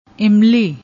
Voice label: "emily", Punjabi; ਇਮਲੀ